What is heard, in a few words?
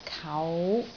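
"kau5", Cantonese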